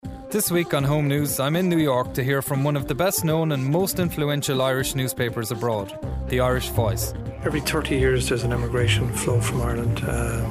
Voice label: the irish voice in the us

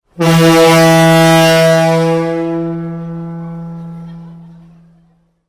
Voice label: scouse sound

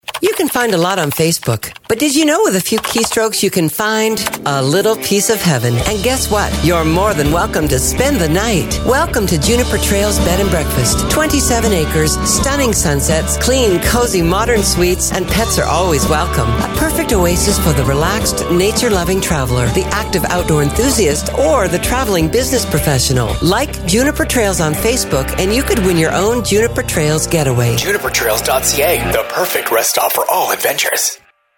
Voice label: radio spot; juniper trails b b getaway

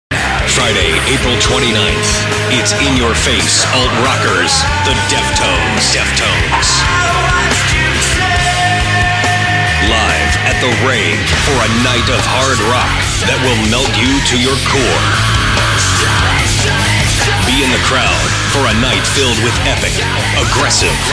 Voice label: concert commercial; the rave milwaukee wisconsin; tim burt media

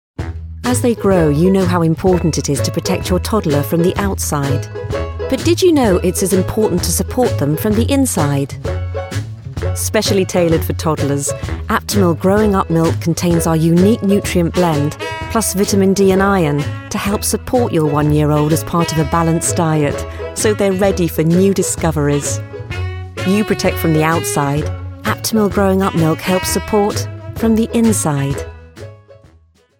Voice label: advert; aptimil demo

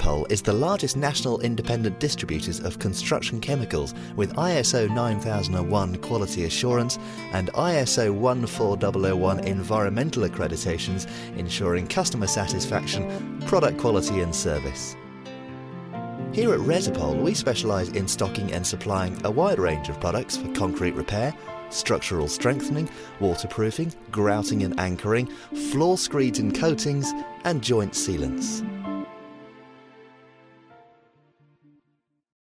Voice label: on hold voice over

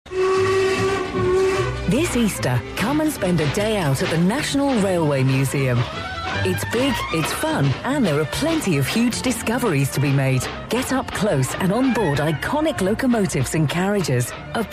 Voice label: advert; national railway museum

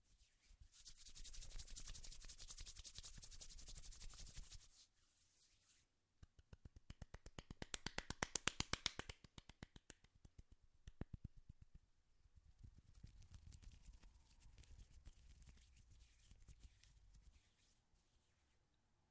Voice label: test condenser mic through tascam dr40

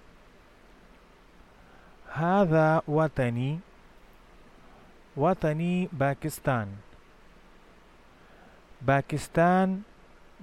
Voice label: arabic basic listening practice; Arabic - Basic Listening Practice 6

